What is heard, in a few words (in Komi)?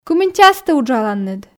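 кымын час ті уджаланныд, Komi, female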